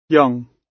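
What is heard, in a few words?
"young", British English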